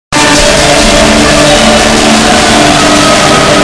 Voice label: chinois reverb